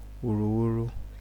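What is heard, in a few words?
"wuruwuru", Nigerian Pidgin, male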